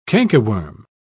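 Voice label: "cankerworm", British English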